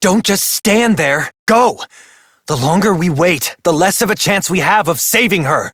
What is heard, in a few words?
Operator Voice; JadeShadowsQuest; Mission Three